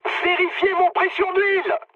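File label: French damaged oil mood high; War Thunder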